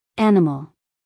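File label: "animal", American English, female; everyone-can-use-english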